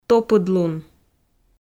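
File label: "топыдлун", Komi, female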